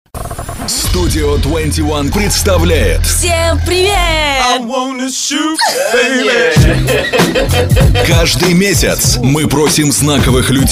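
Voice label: Russian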